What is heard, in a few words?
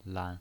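"lan", pinyin, Mandarin Chinese, neutral tone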